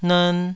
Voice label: "nern2", taiwanese